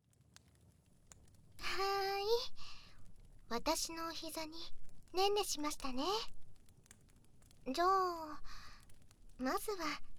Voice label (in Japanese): 「赤ずきん」読み聞かせ耳かき（暖炉音あり）